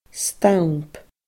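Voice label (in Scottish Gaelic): "stamp", Scottish Gaelic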